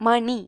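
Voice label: "bell", Malayalam; gcompris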